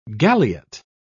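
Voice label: "galliot", British English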